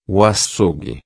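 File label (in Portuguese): "açougue", Portuguese; comercios servicios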